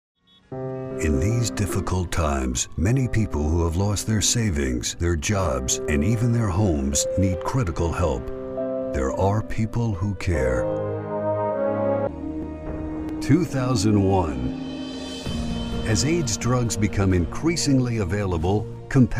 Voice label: Compassionate Voice